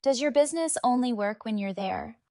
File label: voice warm conversational